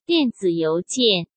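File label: "电子邮件", Mandarin Chinese; chinese flashcards; hsk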